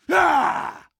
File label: pain, combine soldier; hl2